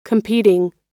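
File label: "competing", American English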